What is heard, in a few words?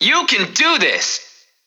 VO Classic Positive